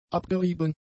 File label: "abgerieben", German; adjectives